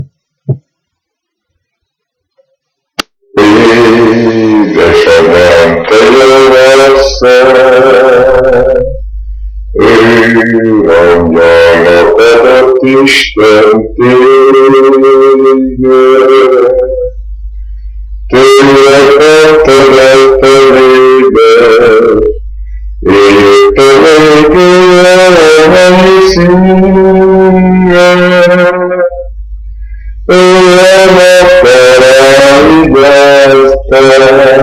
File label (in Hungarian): nyelvjaras gyujtesek